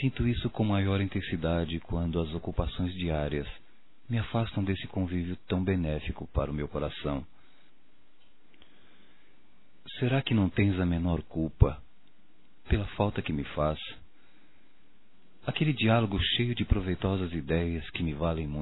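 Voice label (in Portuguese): voz Saudades Romântica, masc; Telemensagens; Só Voz; Telemensagens 2017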